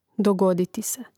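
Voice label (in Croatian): "dogoditi se", Hrvatski; mreznik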